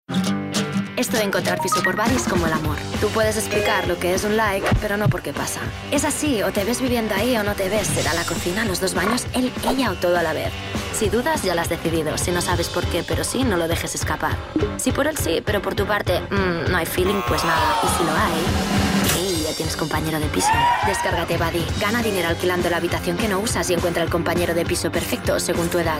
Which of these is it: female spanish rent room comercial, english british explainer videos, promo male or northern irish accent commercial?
female spanish rent room comercial